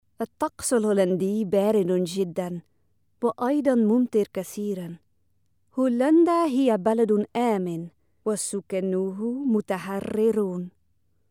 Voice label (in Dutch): Arabisch